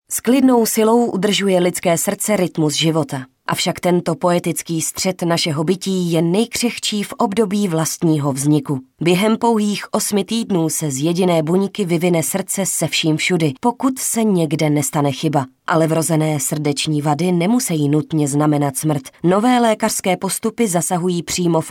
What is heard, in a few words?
female czech voice over narrative